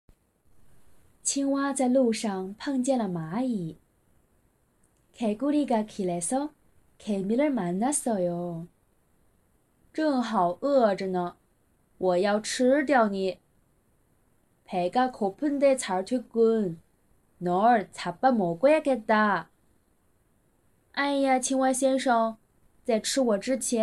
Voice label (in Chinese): 小青蛙 中韩 朗读